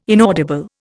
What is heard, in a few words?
"inaudible", Indian